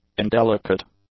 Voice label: "indelicate", English; adjectives

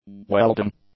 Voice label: "welding", English; nouns

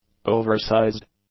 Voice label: "oversized", English; adjectives

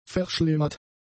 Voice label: "verschlimmert", German; adjectives

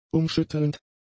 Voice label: "umschüttelnd", German; adjectives

